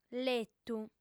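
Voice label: "letu", female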